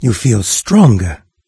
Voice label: stronger, NARRATOR, en-US; Fate The Traitor Soul

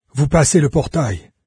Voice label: portal, fr narrator; Fate Undiscovered Realms

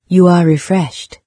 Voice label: refreshed, en-UK, NARRATOR; Fate

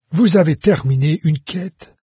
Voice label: quest complete, fr, NARRATOR; Fate

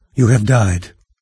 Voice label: die, narrator; Fate The Cursed King; en-US

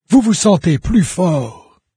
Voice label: stronger, fr, NARRATOR; Fate

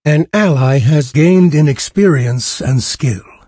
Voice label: ally levelup, narrator; Fate The Cursed King; en-US

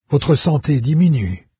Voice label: health, fr, NARRATOR; Fate

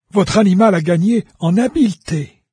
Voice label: pet level up, NARRATOR, fr; Fate

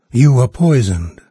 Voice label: poison, VOICES en-US NARRATOR; Fate Undiscovered Realms

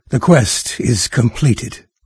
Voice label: quest complete, NARRATOR, en-US; Fate The Traitor Soul

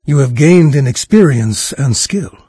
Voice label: level up, narrator; Fate Undiscovered Realms

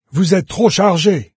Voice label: pack full, fr, NARRATOR; Fate

